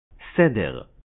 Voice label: "Seder", Hebrew